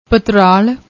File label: "patral", Punjabi